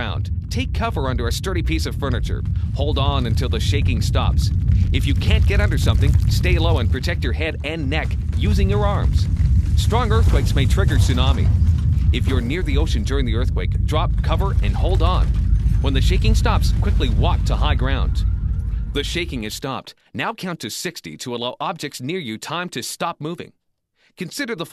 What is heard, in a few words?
ShakeOut BC Drill Broadcast Video with Sound Effects